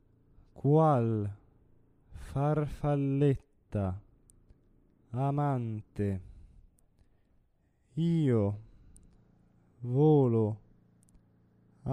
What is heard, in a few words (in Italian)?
Qual farfalletta amante monotono